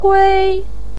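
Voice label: "kui" first tone, chinese, voice pinyin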